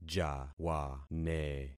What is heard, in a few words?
"jawane", concat syllables; Affixing experiment